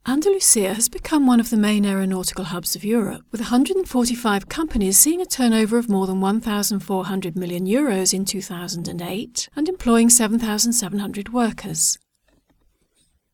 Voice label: locutora inglesa english voiceover; Speaker Inglese

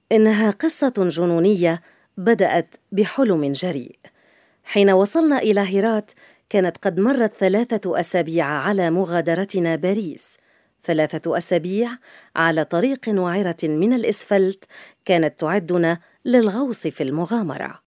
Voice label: locutora arabe arabic female voiceover; Arabic female voice over. Lebanon

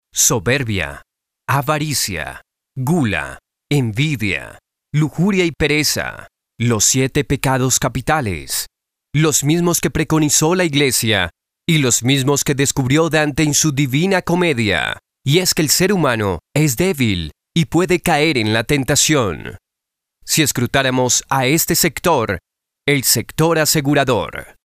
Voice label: locutor colombiano colombian voiceover

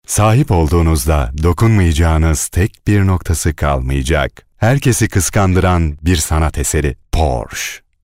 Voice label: locutor turco turkish male voiceover

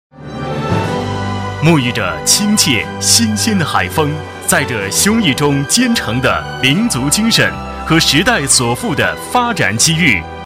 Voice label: male chinese voice over; locutor chino.

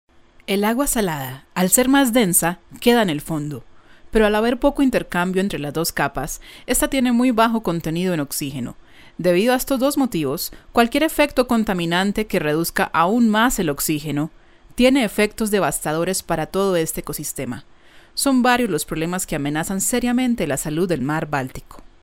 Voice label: locutora colombiana colombian voiceover; locutora y cantante colombiana

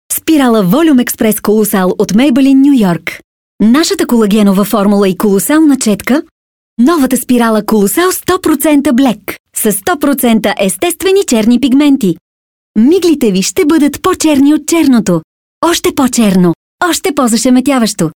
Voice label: locutora bulgara bulgarian voiceover

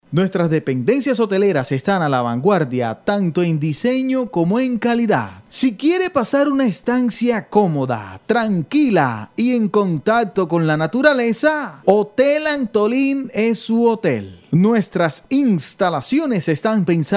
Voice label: colombian voiceover locutor colombiano

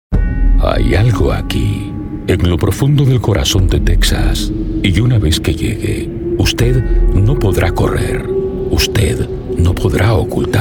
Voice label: locutor colombiano colombian voiceover; locutor de Colombia

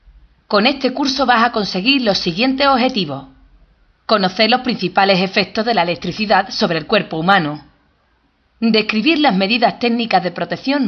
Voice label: locutora andaluza spanish voiceover

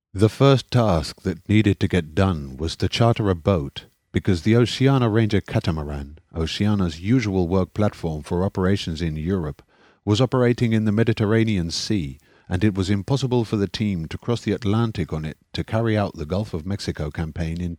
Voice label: locutor ingles britanico english voiceover; speaker inglese

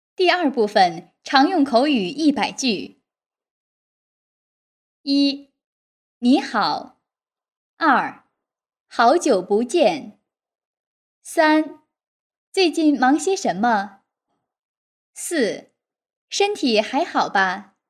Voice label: mandarin female chinese voiceover locutora china

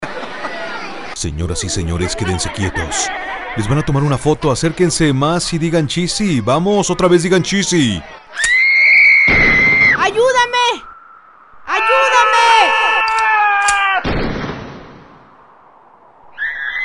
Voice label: animation mexican voiceover locutor mexicano; locutor mejicano. Locución con efectos para animación.

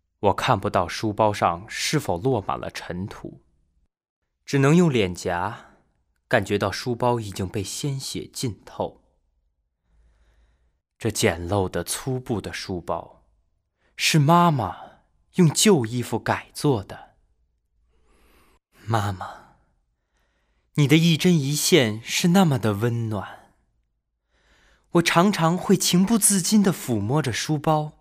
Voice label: mandarin chinese male locutor chino; Chinese mandarin voiceover artist.

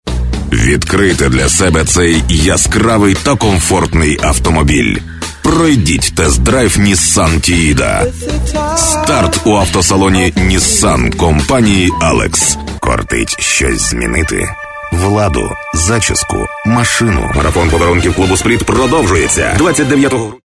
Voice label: locutor ucraniano ukrainian voiceover; Ukrainian male voice over

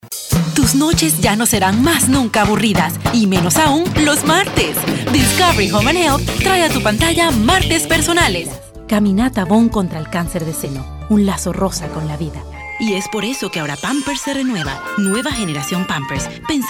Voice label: locutora venezuela venezuelan female voiceover

